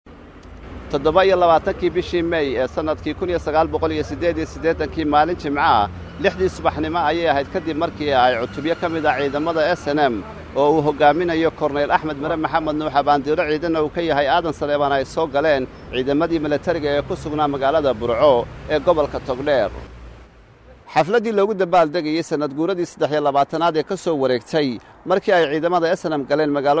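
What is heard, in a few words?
Somali, male, news report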